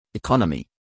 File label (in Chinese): 英籍外師念economy